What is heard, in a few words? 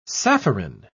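"sapphirine", British English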